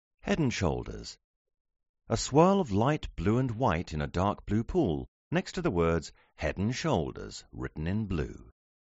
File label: logo audio Description